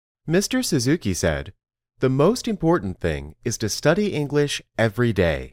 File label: Dictation